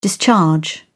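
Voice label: "discharge", English, British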